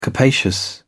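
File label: "capacious", British English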